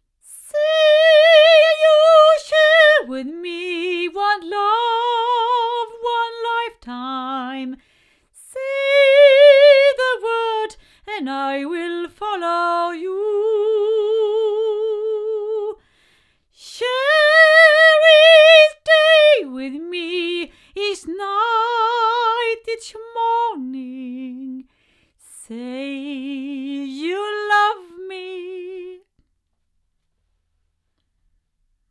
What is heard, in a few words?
female; Oktava ML52; microphones